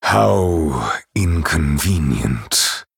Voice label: Male Night Elf Oops

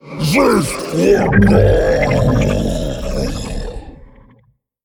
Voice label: Male Play

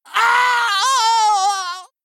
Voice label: Male Human Death

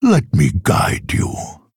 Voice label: Male Orc Play

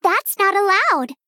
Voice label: Female Gnome

